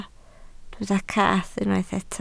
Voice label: "cath", Welsh